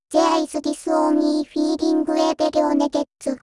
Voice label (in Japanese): ノーマル; Voidoll